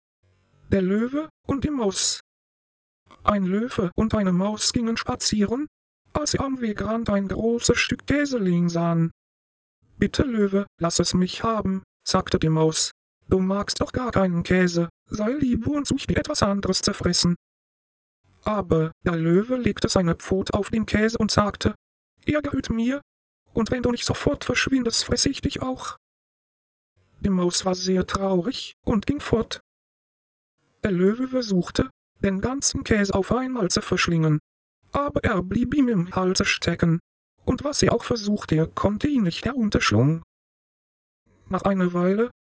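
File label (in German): German female read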